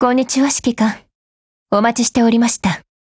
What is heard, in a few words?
HELLO JP; GF Alarm; M590